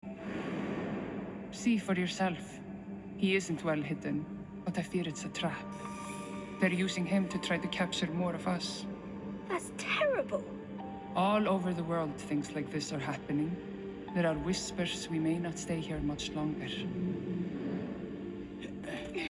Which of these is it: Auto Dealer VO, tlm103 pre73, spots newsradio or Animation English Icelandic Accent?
Animation English Icelandic Accent